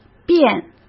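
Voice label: "遍", Mandarin Chinese; chinese flashcards; hsk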